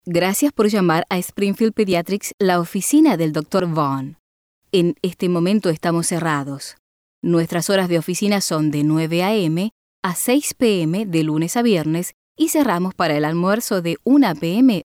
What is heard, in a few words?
After hrs Spanish; Springfield Pediatrics Voicemail